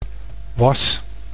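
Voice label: "was", Dutch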